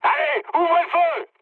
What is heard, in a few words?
attack position mood high, speech fr; War Thunder